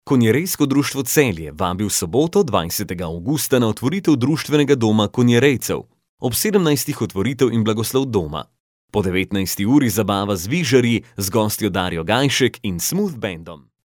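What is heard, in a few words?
Slovenian, male, interactive voice response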